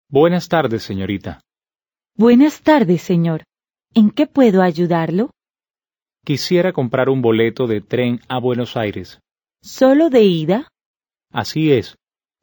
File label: Dialogue, Spanish